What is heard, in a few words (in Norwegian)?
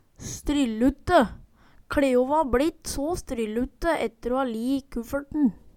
stryllutte, Numedalsmål